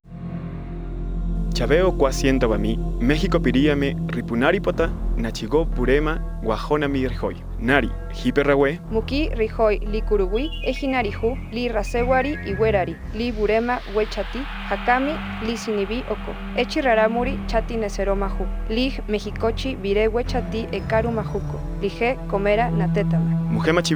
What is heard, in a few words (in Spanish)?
tarahumara del centro; Trata Sexual